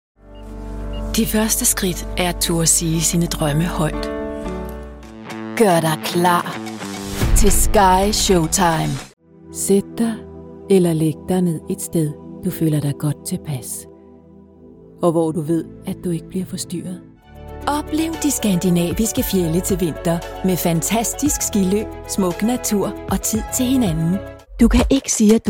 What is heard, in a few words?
danish commercial; Dänemark; Dänisch